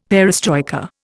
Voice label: "perestroika", Indian; Dictionary

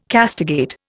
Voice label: "castigate", Indian

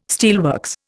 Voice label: "steelworks", Indian

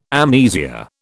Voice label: "amnesia", British

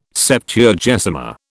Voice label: "Septuagesima", British

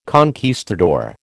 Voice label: "conquistador", American

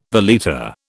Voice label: "valeta", British

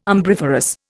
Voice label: "umbriferous", Indian

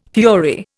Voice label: "fury", Indian

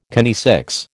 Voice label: "kinesics", American

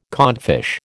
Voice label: "codfish", American

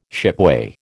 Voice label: "shipway", American

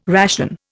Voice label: "ration", Indian

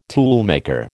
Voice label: "toolmaker", American

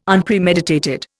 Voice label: "Unpremeditated", Indian

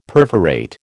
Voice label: "perforate", American

